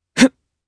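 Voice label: Vox Jump, Japanese; Lucias; kingsraid; voices heroes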